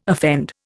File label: "offend", Indian